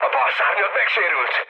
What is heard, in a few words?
left wing, speech hun; War Thunder